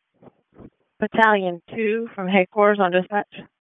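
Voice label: Fire Department HQ Dispatch; RFD HQ DISP; wake; p25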